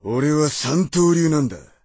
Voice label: voice, dubbing